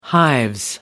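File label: "hives", British English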